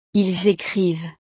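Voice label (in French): "ils ecrivent", French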